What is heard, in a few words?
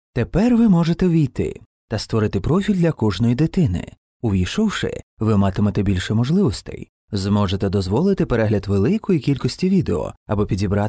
ukrainian narration